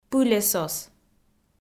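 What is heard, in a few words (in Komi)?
"пылесос", Komi, female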